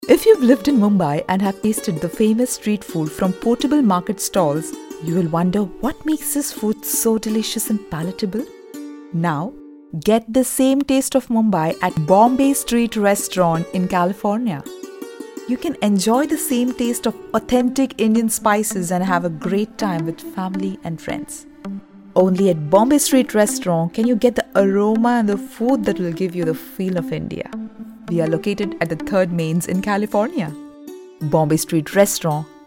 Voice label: commercial

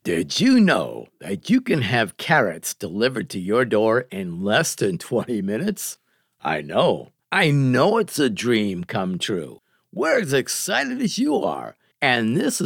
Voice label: PRODUCT VIDEO; BUNNY CARROTS

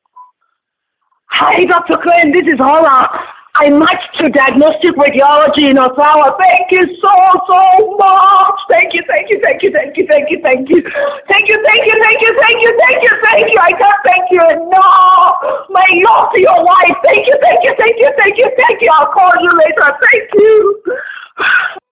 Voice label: voice message; CARMS 2017 match

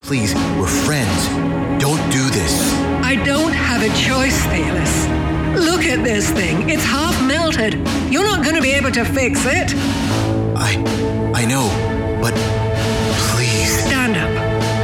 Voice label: Drama

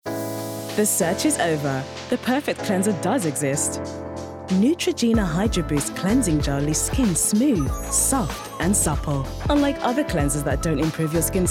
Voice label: Commercial; Neutrogena

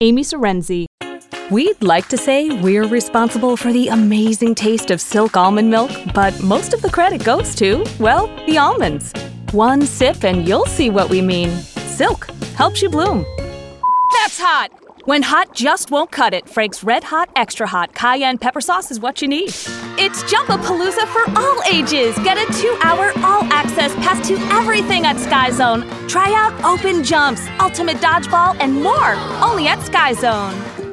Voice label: Commercial Voiceovers

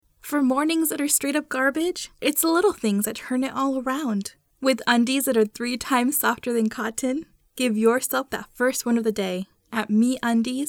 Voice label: Commercial

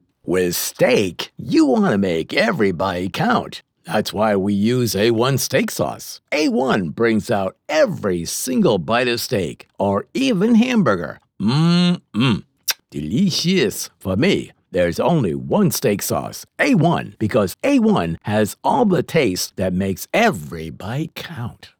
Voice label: RADIO TV; A 1 STEAK

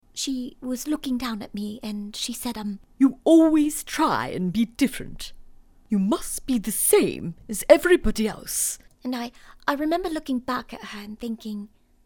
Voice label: Posh RP Springboard

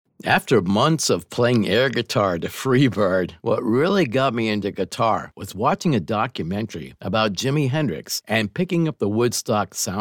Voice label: NARRATION; GUITAR BOOK

